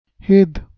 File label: "hed", Balochi